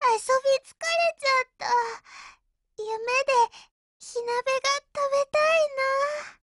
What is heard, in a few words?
BREAK, JP